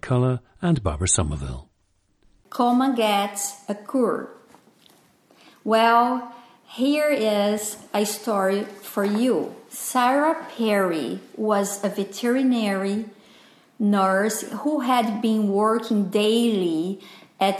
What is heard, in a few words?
brazil, dialects